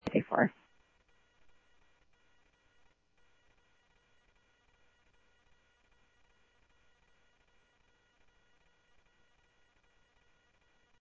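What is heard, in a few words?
Mesa Police Department, scanner audio trunk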